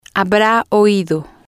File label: "habra oido", spanish